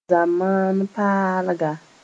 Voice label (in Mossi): "zamaan-paalga", moore